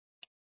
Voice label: Fire Departments Mesa Simulcast; TOPAZ

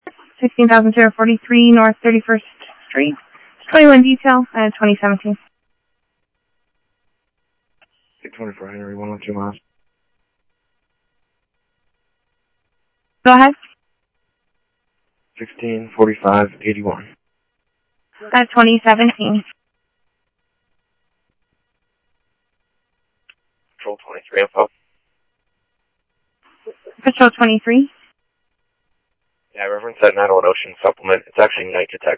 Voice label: Phoenix PD, scanner audio

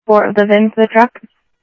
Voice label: Sheriff-WestCnty, scanner audio

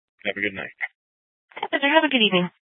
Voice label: Sheriff, scanner P25; Shrf Lakes

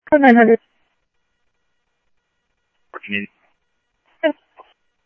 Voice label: Police, scanner; H-Scottsdale; ScottsdalePolice

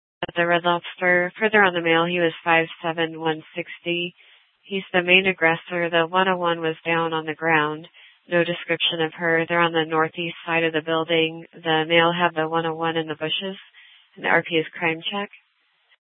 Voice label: Superstition Dispatch, Mesa PD

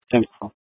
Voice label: Tempe PD, scanner; Rgnl Wireless Co-op (RWC)

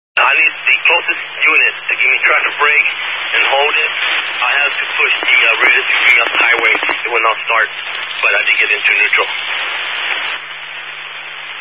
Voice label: Highway Patrol, Phoenix Metro West Area, scanner

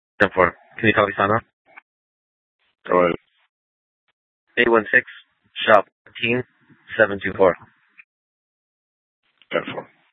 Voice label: PD Dispatch

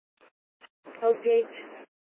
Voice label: Court Security Dispatch, scanner

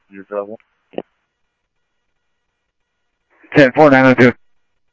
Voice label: Police, scanner audio trunk

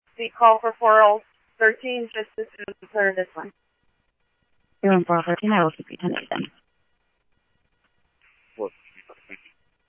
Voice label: Scottsdale Police, scanner audio

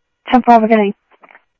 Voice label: Sheriff scanner audio; South Scottsdale; MCSO - EAST; Sheriff-EastCnty

